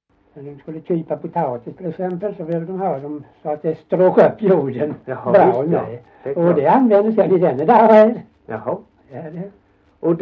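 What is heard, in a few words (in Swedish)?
Gällared Halland, dialekter; Folkminnen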